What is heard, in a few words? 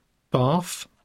bath, uvoiced consonnes; poitiers